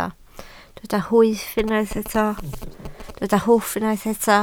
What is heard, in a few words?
"hwff", Welsh